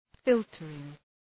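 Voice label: "filtering", English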